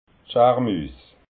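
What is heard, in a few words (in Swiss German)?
"schaarmuus", Alsatian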